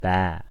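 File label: "baa", American English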